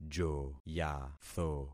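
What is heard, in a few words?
"joyatho", concatenated syllables; Affixing experiment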